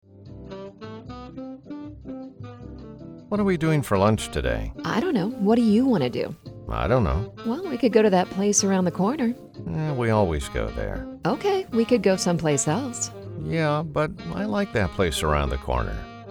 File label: Conversations On Hold, male voice talent